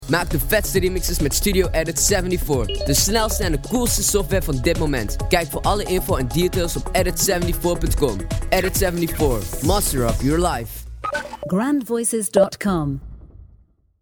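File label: Dutch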